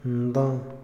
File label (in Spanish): "ministro", Otomí, hombre; Moderno; INALI